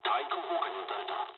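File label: damaged aa mood low, speech japanese; War Thunder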